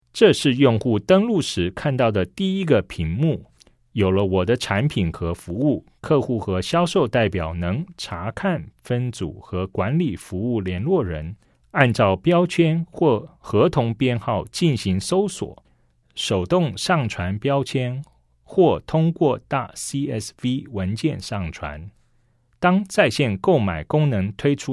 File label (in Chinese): CHINESE